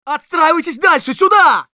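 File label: Scout move up, Russian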